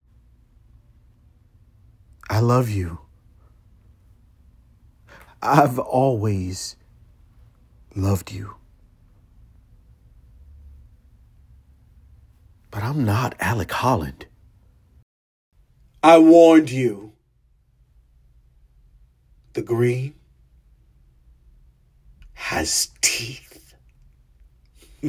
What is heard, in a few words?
voiceover; swamp thing